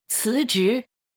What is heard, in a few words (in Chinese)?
"辞职", mandarin